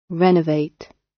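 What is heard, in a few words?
"renovate", British English; Design and innovation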